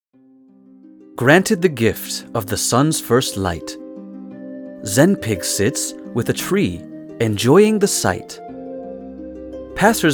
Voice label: Narration; Narration Demo